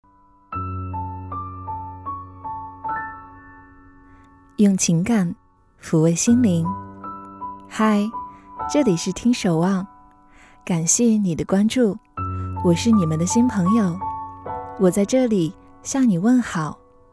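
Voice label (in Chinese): 女【夜听】订阅号推送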